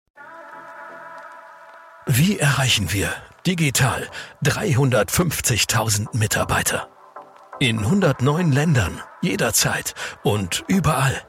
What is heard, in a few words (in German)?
kompetent corporate; Siemens S Welt